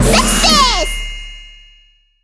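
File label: success, Japanese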